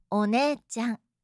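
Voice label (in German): "oneechan", japanische Mädchennamen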